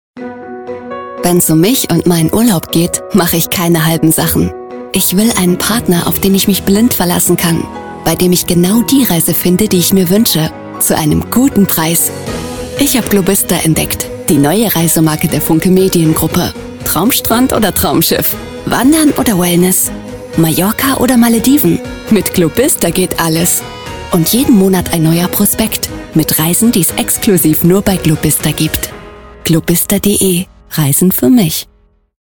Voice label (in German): Funkspot; Globista